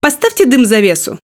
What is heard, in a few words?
Speech Quick Commands Need Smoke; World Of Warships